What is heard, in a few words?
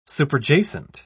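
"superjacent", English, British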